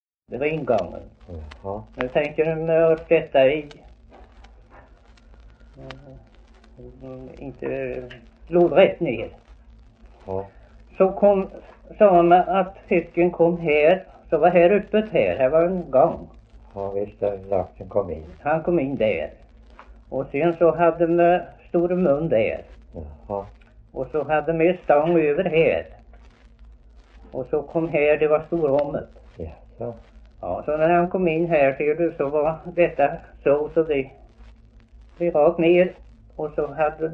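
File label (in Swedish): Värö Halland, dialekter; Folkminnen; Svenska sagor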